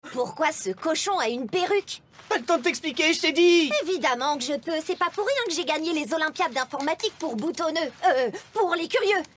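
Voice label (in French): AUDIO DRAMA, Comédienne; AUDIO DRAMA - Justification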